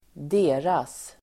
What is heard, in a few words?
"deras", Swedish